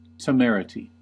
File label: "temerity", US; pronunciations